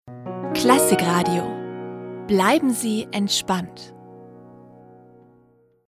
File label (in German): Station Voice vertont